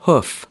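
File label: "hoof", American English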